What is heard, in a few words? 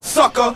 "sucker", male, Voice